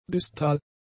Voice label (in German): "distal", German; adjectives